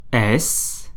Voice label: alphabet "s", French

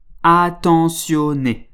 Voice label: "attentionné", French

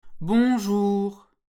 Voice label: "bonjour", French; Hello, Good morning; (during the day)

